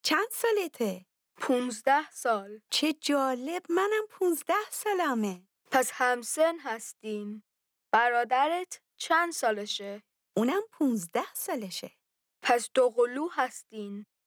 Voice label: Dialogue lesson Farsi